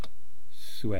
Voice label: "swe", East Cree syllabics

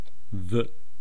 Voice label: "vu", east cree syllabics; language

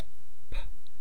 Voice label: "p", East Cree syllabics

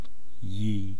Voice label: "yii", east cree syllabics; language

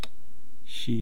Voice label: "shii", East Cree syllabics

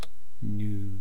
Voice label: "nuu", East Cree syllabics

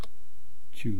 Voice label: "chuu", East Cree syllabics

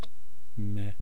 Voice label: "me", East Cree syllabics

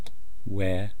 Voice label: "we", East Cree syllabics; language

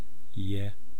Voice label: "ye", east cree syllabics

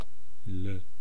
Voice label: "lu", East Cree syllabics

